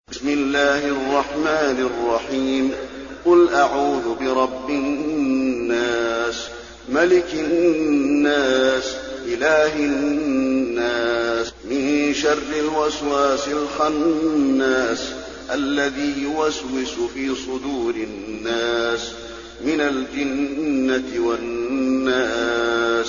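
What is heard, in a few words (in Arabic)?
الناس, تلاوة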